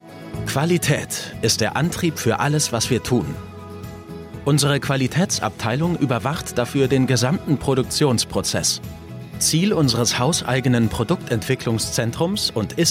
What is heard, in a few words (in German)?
Imagefilm, Presentation, German; Mondi Bupak